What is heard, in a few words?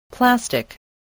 "plastic", voice spelling